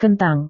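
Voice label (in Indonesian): "kentang", Indonesian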